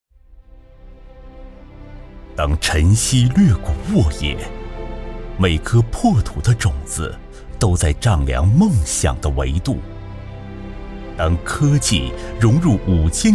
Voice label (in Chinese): 男 开场